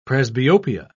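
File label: "presbyopia", British English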